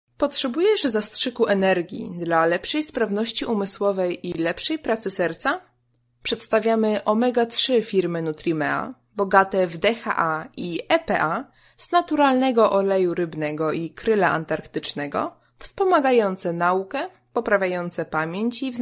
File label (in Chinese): 波兰语女, 外语